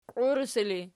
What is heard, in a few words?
"qiuresi", Archi